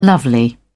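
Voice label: "lovely", British English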